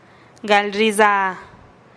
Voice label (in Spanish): "gal riiza" caminar, teotitlan